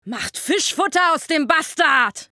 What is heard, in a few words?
Shooter Villain